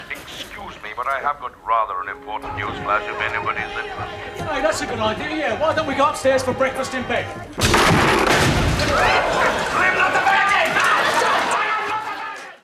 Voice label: newsflash